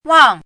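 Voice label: "wang4" Mandarin Chinese, falling tone; chinese-voice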